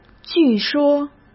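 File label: "据说", Mandarin Chinese; chinese-flashcards; hsk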